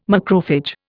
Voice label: "macrophage", Indian